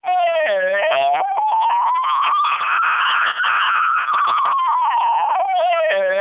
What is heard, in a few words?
siren melp, speechsamples; Siren only